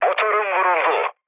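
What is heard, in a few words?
Turkish damaged engine, mood low, speech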